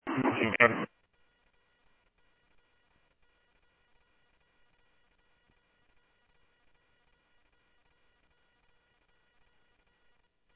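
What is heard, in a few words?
police radio scanner traffic, Mesa Simulcast; MESA PD 1 Fiesta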